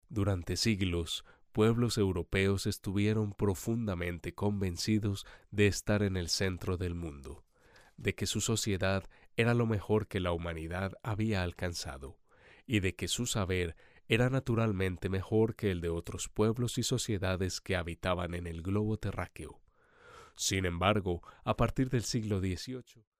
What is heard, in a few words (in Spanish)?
Voz Masculina